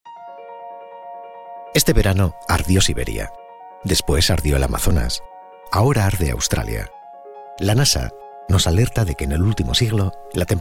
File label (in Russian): Мужской испанский